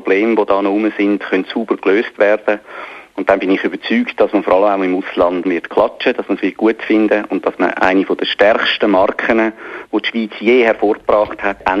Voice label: news meldung swiss